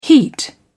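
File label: "heat", British English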